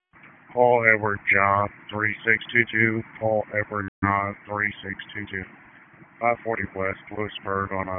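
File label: Dispatch 1, P25; WSO DISP 1; wake p25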